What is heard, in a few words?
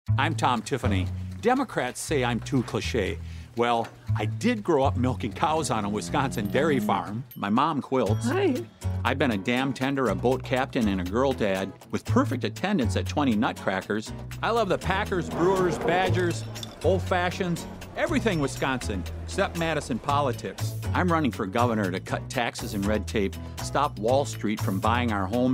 Commercial